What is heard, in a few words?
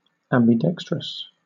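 "ambidextrous", British English; pronunciations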